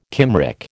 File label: "Cymric", American